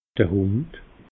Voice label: "hund", alsace

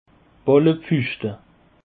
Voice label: "ballepfuschte", alsace

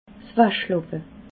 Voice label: "waschlappel", alsace

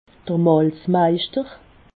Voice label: "malzmeischter", alsace

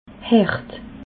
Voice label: "hert", alsace

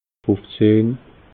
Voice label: "fufzehn", alsace

